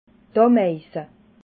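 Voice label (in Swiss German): "omeise", Alsatian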